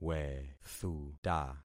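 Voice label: "wethuta", concatenated syllables; Affixing experiment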